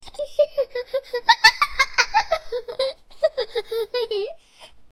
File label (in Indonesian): anak perempuan umur 5, child